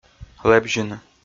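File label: "лэбзьыны" Komi, male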